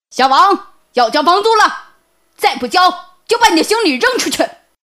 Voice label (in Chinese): 女 角色模仿 包租婆